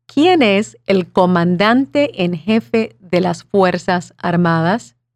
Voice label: Spanish